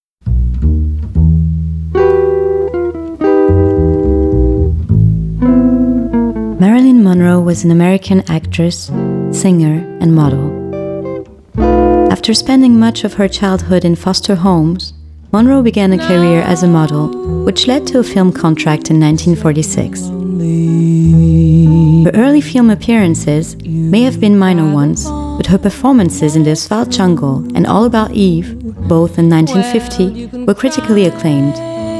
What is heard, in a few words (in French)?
Voix off court métrage en anglais; Monroe